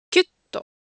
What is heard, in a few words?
Japanese Motion, Ideo Phones